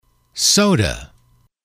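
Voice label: "soda", English, male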